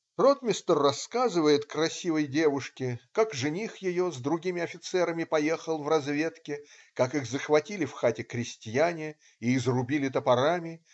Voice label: russian; IMS-Toucan